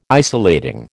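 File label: "isolating", American English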